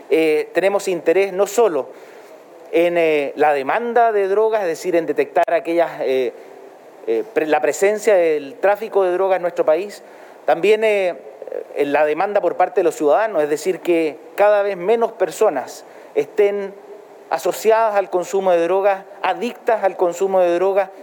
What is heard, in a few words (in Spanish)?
CUÑA